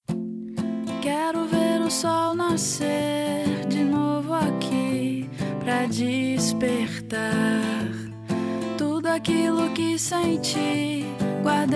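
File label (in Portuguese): telemensagem saudades romanticas, male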